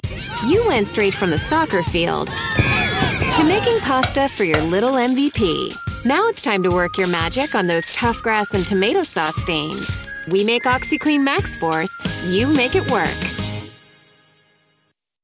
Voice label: EMPOWERED MOM MOTIVATIONAL; Oxy Clean